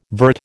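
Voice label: "vert", American English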